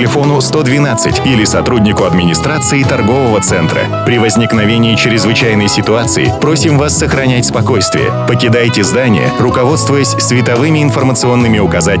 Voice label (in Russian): Ролик о пожарной безопасности лифт эскалатор мужской